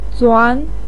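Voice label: "zuan" second tone, Mandarin Chinese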